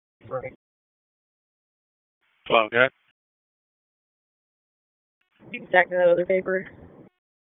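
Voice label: Police Dispatch, p25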